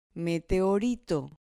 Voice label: "meteorito", Spanish